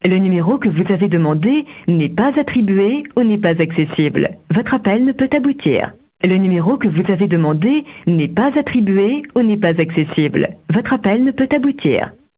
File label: France Incorrect number; Bouygues